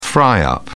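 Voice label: "fry up", British English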